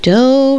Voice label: FEMALE